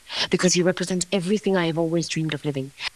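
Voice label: voice acting, es, female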